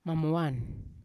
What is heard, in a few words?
"mamowan", Yawa; hot